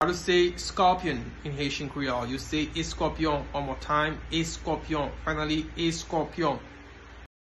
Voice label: Eskopyon pronunciation by a Haitian teacher, Haitian Creole